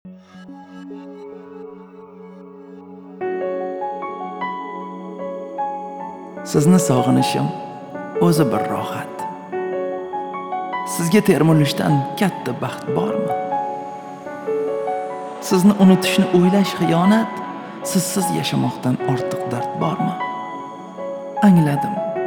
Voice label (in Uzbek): monolog